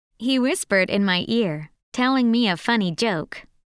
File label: sen whisper